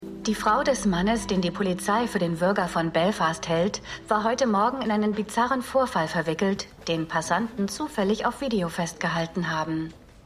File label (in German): Nachrichtensprecherin; The Fall